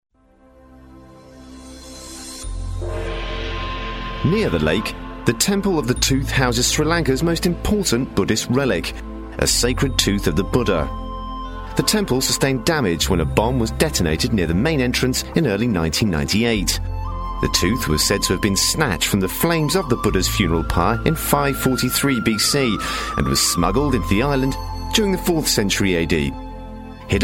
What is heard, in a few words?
Documentary Narration; Vocal Point